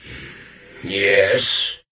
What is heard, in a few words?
NPC Death Knight Male Med Greeting